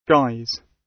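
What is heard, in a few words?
"guise", British English; Technology; Công nghệ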